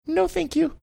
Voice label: cute no thank you